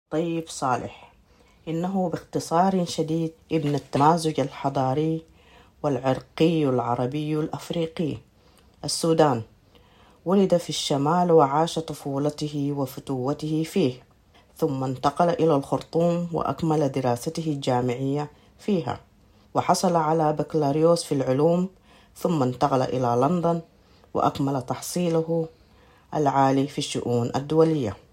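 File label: Arabic Sudan, general; GoLocalise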